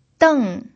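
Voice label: "deng", Mandarin Chinese, fourth tone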